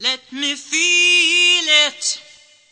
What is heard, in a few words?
diva let me feel it, cheesy divas